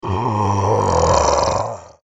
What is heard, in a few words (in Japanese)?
ゾンビボイス